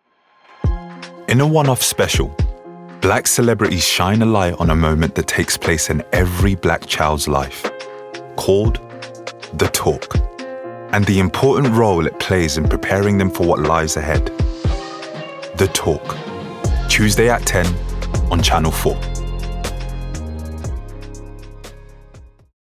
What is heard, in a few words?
promo